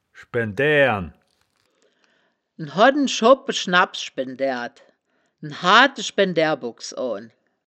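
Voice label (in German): "schben daeaern", wittlicher woerterbuch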